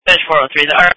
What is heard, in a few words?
Sheriff Dispatch